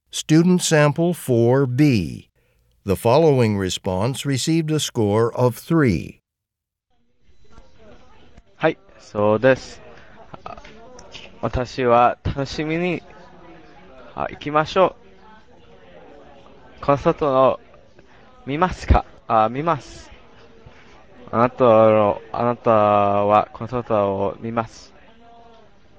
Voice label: japanese conversation